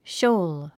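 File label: "shoal", English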